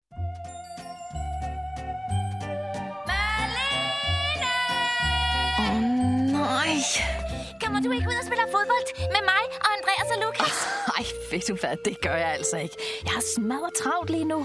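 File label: Danish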